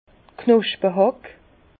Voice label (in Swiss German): "knospehack", Bas Rhin; vin; griffe à jointer